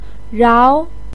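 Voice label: "rao", Mandarin pinyin, second tone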